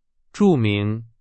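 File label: Chinese, vocabulary; hsk4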